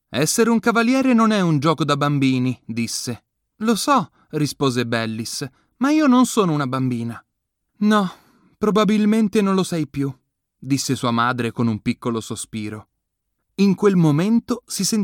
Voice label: Italian narration